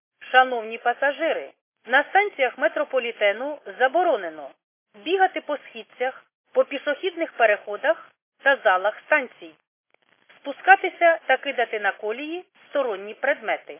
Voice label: khs st female, subway station